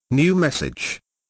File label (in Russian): muzhskim golosom novoe soobshenie